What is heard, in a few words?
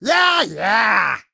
Male Imp Attack